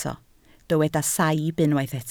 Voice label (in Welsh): "saib", Welsh